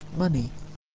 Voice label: "money", English

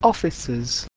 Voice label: "offices", English

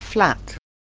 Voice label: "flat", English

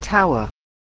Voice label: "tower", English

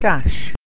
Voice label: "dash", English

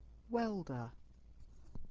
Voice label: welder

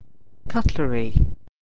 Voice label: "cutlery", English

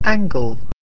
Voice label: "angle", English

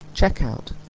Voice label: "checkout", English

